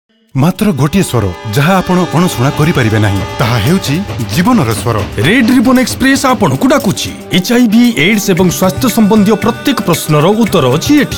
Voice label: Radio Oriya